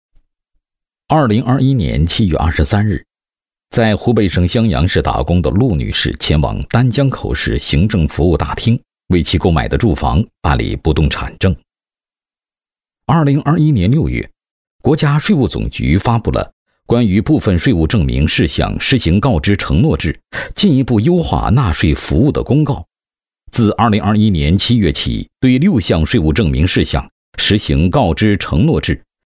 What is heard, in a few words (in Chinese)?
男 纪实专题 纪实讲解感; 高端内涵; 减证便民